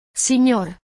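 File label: "signor", Italian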